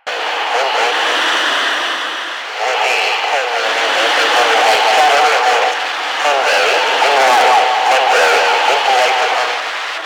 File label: Ambient Propaganda Loop; Warframe; Ambience; Nineteen Ninety Nine